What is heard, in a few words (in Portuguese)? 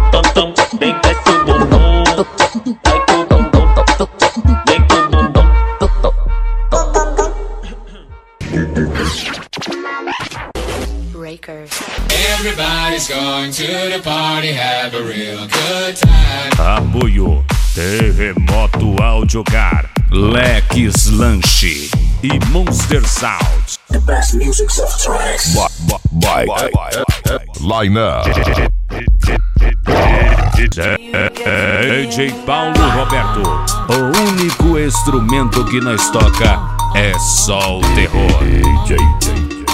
chamada da festa funk automotivo; funk automotivo 2017 uberlandia mg